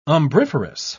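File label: "umbriferous", British English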